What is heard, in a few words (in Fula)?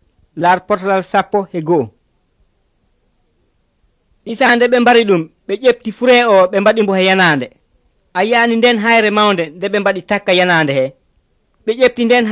pulaar fulacunda, drama; senegal; pulaar bible society of senegal nt drama